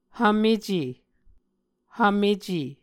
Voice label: "hvmece", Muscogee